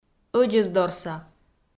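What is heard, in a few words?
"ӧдзӧсдорса", Komi, female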